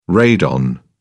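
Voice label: "radon", British English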